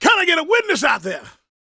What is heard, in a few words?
preachin, gospel preacher